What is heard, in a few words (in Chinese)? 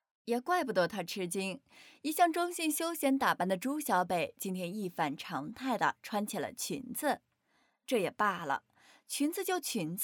有声书女声旁白